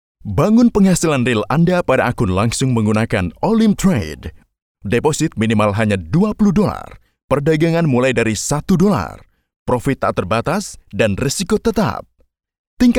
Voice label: 印尼语男 干音 宣传片

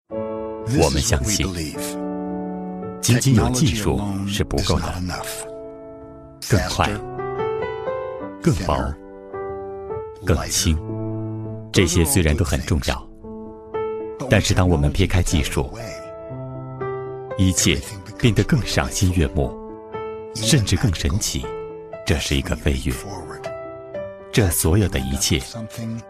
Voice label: 男 视频广告, 国语男声配音; pad 2

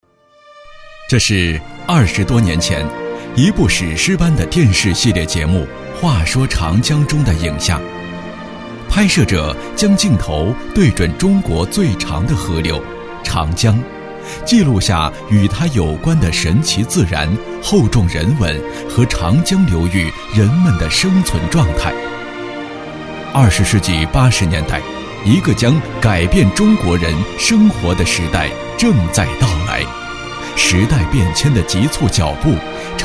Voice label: 男 纪录片; 再说长江

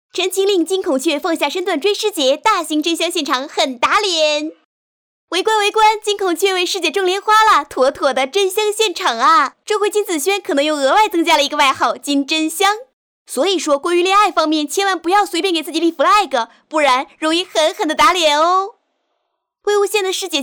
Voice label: 女 娱乐新闻 快速 年轻活泼

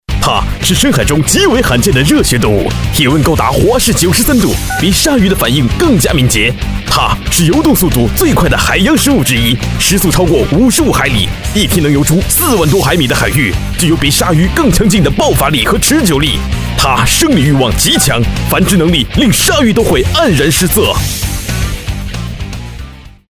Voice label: 男 直销电购 胶囊, 国语男声配音 浑厚大气; 金枪活腺胶囊

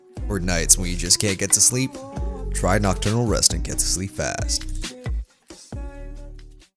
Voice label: 外籍英男 电台风格

